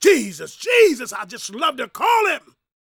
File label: preachin, gospel preacher